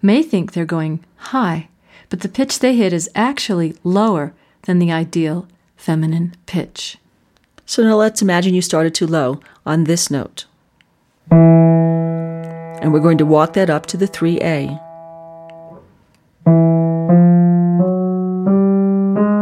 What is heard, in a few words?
Voice Fem